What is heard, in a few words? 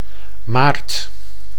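"maart", Dutch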